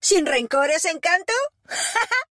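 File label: European Spanish; Nicht persönlich nehmen, Love!; ¿Sin rencores, encanto; 回声（守望先锋2）/终极技能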